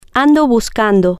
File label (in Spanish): "ando buscando", Spanish